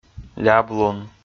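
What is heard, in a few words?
"ляблун", Komi, male; kpv audio dict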